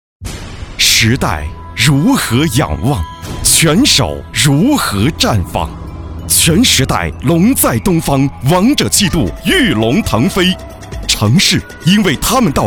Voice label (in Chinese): 男 片花; 拳时代龙在东方